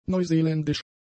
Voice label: "neuseeländisch", German; adjectives